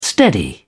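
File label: "steady", British English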